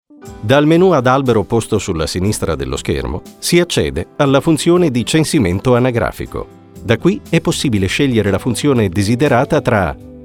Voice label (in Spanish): Masculino